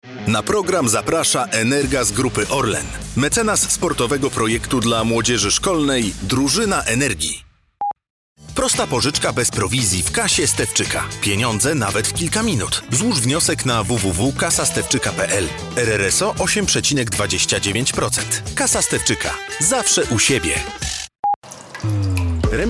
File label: polish voice commercial